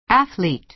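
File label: "athlete", American English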